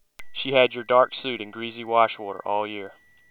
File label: male 2k; FILTERED SOUND; IIR and FIR Filters